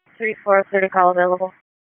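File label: EMS DISP, radio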